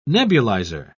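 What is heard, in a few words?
"nebulizer", British English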